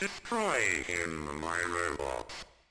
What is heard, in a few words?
C64 Speech "Destroy Him"; stay a while